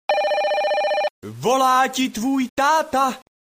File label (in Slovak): zvonenie hlásky cz